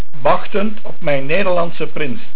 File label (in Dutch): "Prins", Dutch